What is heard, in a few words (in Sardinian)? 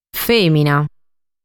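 "Fèmina", Sardinian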